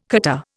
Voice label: "catarrh", Indian

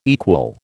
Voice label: "equal", American

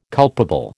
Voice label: "culpable", American

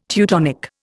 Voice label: "Teutonic", Indian

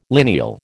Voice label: "lineal", American

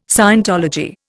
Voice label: "scientology", Indian

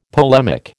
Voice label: "polemic", American

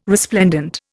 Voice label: "resplendent", Indian

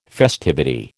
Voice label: "festivity", American

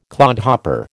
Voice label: "clodhopper", American

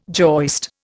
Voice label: "joist", Indian

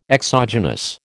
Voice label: "exogenous", American

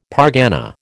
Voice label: "pargana", American

